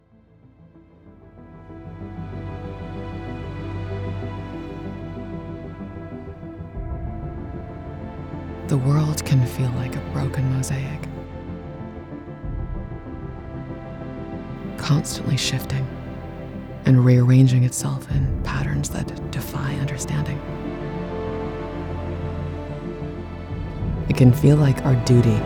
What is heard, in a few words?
english international movie trailers; voice actor